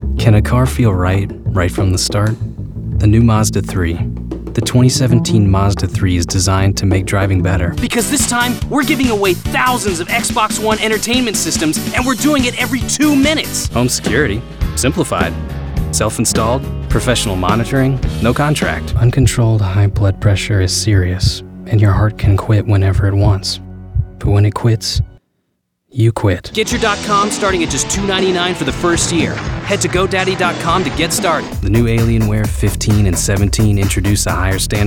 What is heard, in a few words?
Commercial